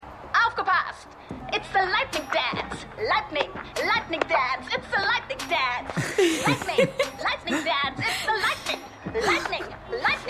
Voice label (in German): Tanzende Junge Frau; Black Lightning